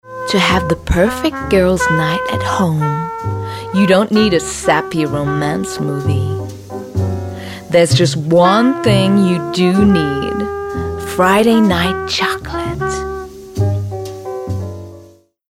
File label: female british english chocolate comercial; ideasonora